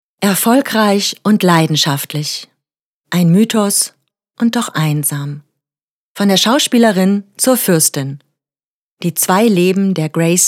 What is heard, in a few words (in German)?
TV Dokumentation; Grace Kelly